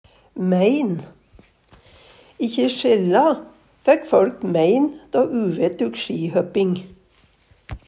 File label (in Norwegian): mein, Numedalsmål; Substantiv inkjekjønn